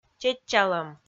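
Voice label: "чеччалӧм", Komi, female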